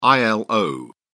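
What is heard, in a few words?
"ilo", British English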